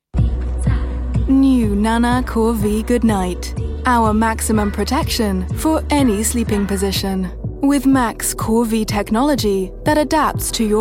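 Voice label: uk, English - England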